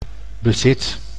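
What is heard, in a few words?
"bezit", Dutch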